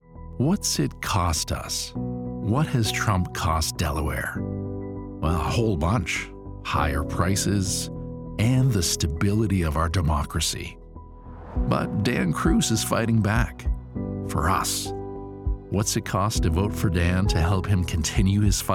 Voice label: Politics w Music